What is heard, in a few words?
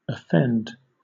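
"offend", British English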